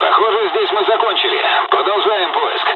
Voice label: dialog, dialogs russian; War Thunder